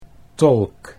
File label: "tolk", Dutch